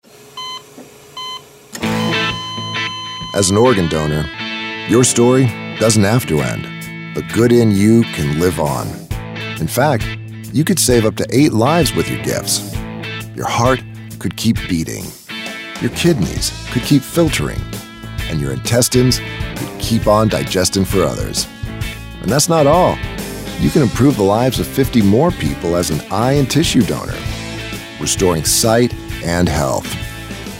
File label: radio; Good In You Radio - 60 second - English; organ-donor